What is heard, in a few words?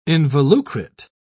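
"involucrate", British English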